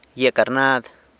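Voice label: "gyia carnad", San Lucas Quiaviní Zapotec; pomegranate tree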